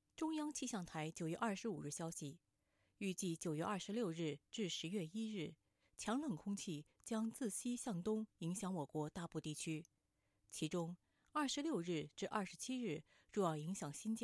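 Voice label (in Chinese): Chinese, General; voice demos; GoLocalise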